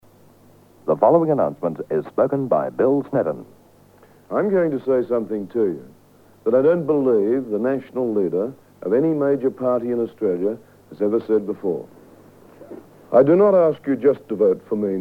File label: liberal tv ad; election ads; 1974; snedden